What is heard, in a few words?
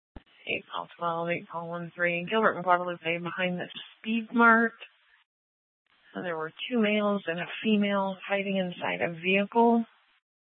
Gilbert Police Dispatch; TOPAZ Regional Wireless Cooperative (TRWC) - Mesa Simulcast; 1 Dispatch